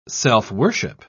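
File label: "self-worship", British English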